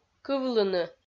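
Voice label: "кывлыны" Komi, female; kpv audio dict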